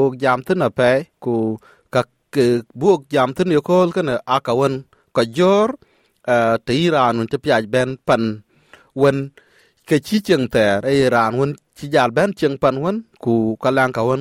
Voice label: dinka, podcast; Domestic Violence and Relation Breakdown; Domestic Violence and Relationship Breakdown awareness in the South Sudanese community.; SBS Dinka